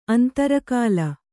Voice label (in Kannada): "ಅಂತರಕಾಲ", kannada